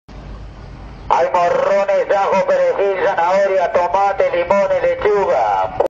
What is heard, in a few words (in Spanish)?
vendedor ambulante gracioso